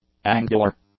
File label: "angular", English; adjectives